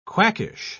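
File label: "quackish", British English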